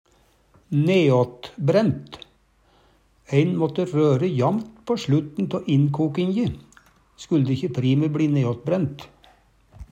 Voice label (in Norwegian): neatbraent, Numedalsmål